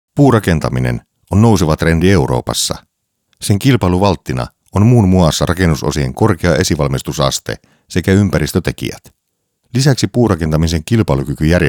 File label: Narrative education